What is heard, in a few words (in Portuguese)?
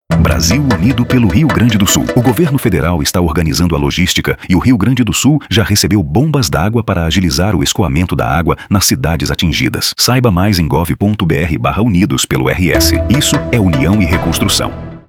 spot; bomba dagua